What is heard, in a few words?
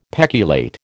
"peculate", American